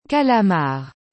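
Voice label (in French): "calamar", French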